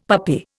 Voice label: "puppy", Indian; Dictionary